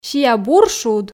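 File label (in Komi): сиа бур шуд, Komi, female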